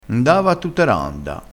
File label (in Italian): "a tutta randa", Crespinese